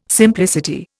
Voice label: "simplicity", Indian